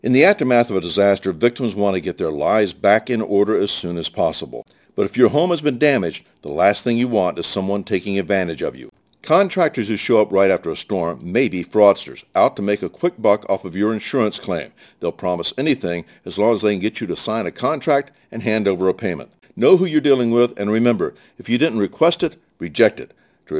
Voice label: Radio; NICB; Contractor